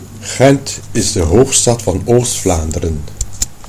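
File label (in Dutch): "gent", Dutch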